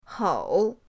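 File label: "hou", Mandarin pinyin, third tone; pinyindct-open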